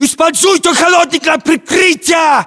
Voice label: order cover fridge, ru; localized english